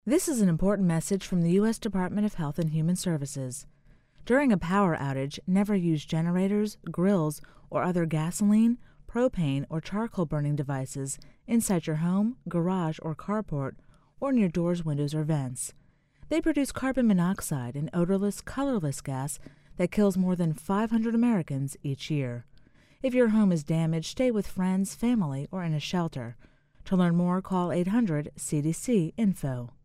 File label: female; natural disasters; disaster staying safe co